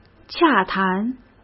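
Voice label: "洽谈", Mandarin Chinese; hsk; chinese-flashcards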